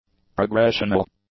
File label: "progressional", english; adjectives